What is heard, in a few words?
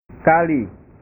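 "kali", Indonesian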